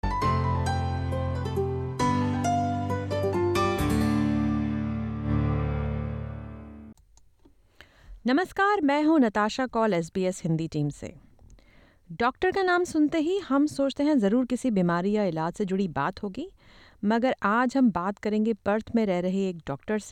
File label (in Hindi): hindi cricket